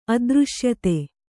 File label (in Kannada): "ಅದೃಶ್ಯತೆ", kannada